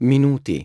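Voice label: "minutes", English; iOS 4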